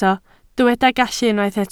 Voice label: "gallu" Welsh

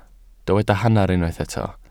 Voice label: "hanner", Welsh

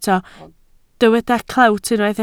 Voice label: "clewt", Welsh

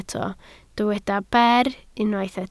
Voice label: "ber", Welsh

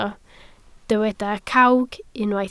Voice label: "cawg", Welsh

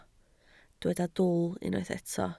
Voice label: "dwl", Welsh

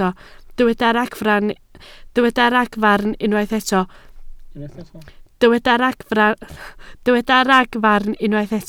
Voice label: "ragfarn", Welsh

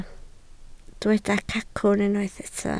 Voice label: "cacwn", Welsh

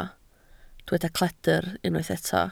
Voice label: "cledr", Welsh

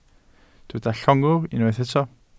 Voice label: "llongwr", Welsh

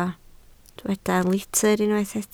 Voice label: "litr" Welsh

